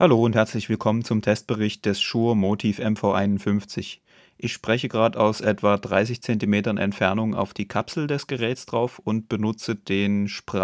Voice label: shure motiv mv51 vocal 30 cm voice